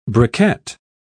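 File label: "briquet", British English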